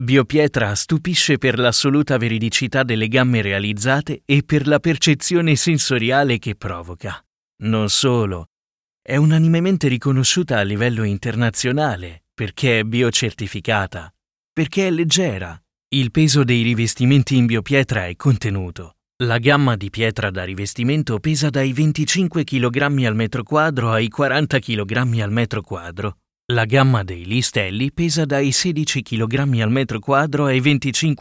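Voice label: corporativo, Italian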